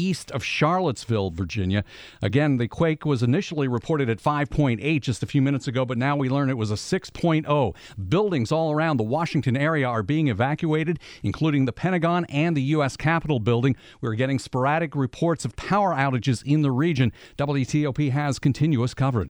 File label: earthquake newscast